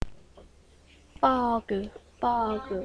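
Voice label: "bog", spoken word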